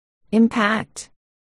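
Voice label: "impact", spoken word, articulation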